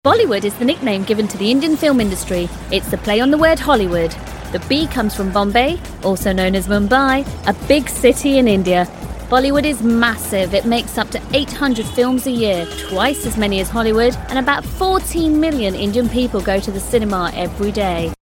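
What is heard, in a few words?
English UK Documentary; GoLocalise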